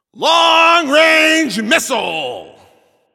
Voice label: Announcer Long Hit To Other Side

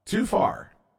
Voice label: Too Far From District, Announcer

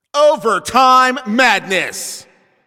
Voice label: Announcer Overtime Starts

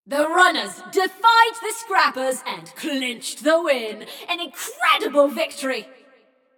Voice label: Runners Win, Announcer; Flux; Scraprun

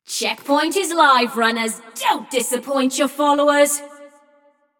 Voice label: Checkpoint Opened, Announcer; Flux; Scraprun

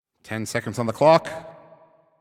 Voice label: 10 Seconds To Game Start, Announcer

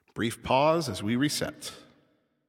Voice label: Announcer Waiting For Players After Score

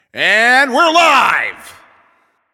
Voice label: Game Start, Announcer

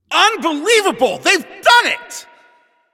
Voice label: Goal Scored, Announcer

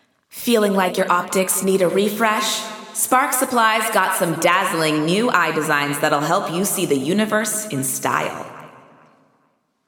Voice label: Announcements; Sparks Supplies; New Eyes; GAIA

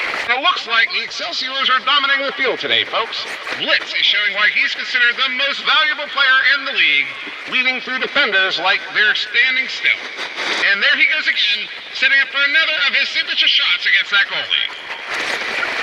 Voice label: Transmission Echoes

